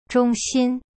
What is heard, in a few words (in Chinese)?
"中心" Chinese; zhong xin